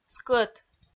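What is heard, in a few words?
"скӧт", Komi-Zyrian, female; kpv audio dict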